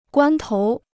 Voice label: "关头", Chinese